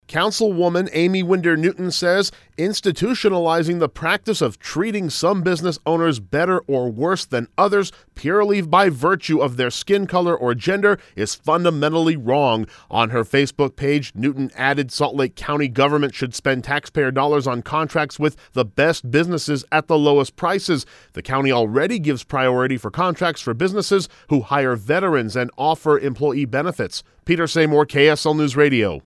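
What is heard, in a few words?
voice public service announcement; SLCO CONTRACTS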